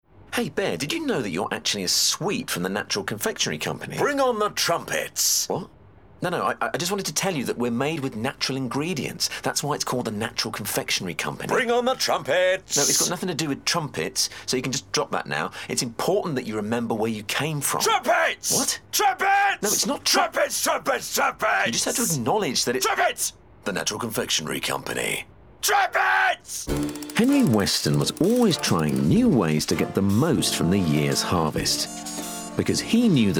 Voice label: Commercials Montage, 30/40's London/Neutral, Deep/Engaging/Comedy